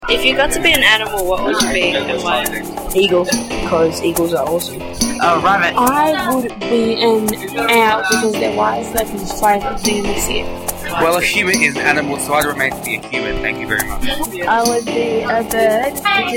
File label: Voxpop; What Animal would you be?